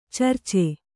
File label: "ಚಿರಚ", Kannada